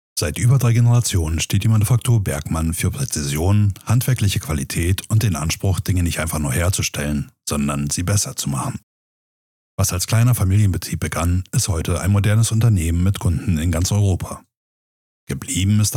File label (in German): Imagefilm Sprachdatei; Sprecherprofil